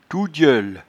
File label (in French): "touedieule", patois jura